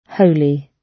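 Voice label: "holy", British English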